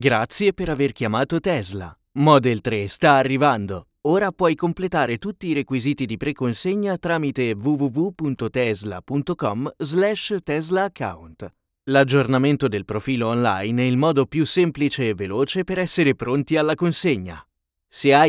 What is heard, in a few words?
telephone vox